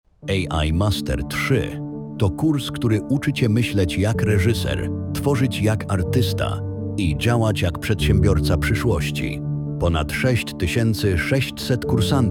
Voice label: Voiceover